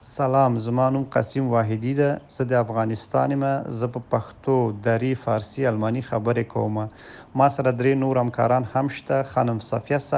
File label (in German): paschtu